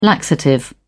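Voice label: "laxative", British English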